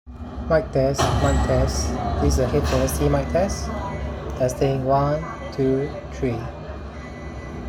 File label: Noisy